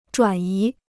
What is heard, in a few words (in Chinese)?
"转移", Chinese